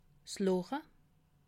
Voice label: "loche", alsace

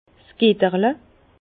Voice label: "getterle", Bas Rhin; vin; gourde

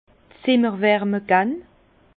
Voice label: "zimmer", Bas Rhin; vin; chambrer le vin

